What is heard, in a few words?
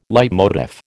"leitmotif", American